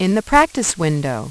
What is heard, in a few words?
Practice Wind, Voice, Prompts; Opcode Systems